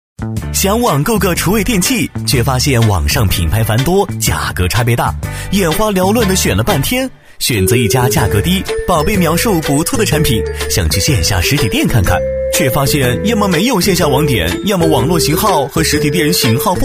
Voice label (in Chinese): 男 年轻欢乐; 男B1-大气沉稳; 科技时尚; MG飞碟《网购》